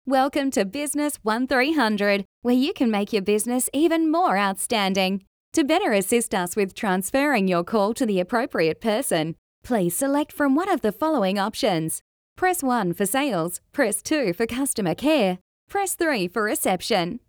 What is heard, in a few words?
Energetic Business